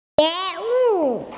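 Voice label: "awareness", Mandarin Chinese, female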